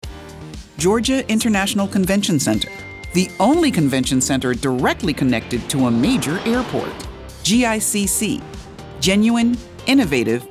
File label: Commercial